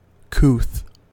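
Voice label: "couth", American English; pronunciations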